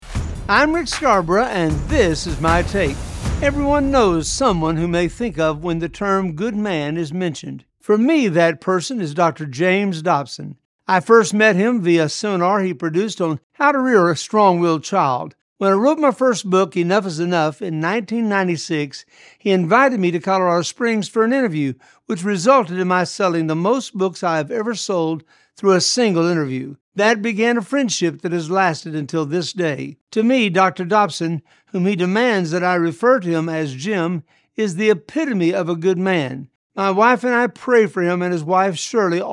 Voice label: radio spot; Rick Scarborough; A Good Man